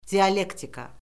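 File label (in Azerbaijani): "dialektika", Azerbaijani; Orfoqrafiya Lüğəti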